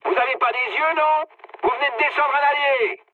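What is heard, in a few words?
French player killed ally mood high; War Thunder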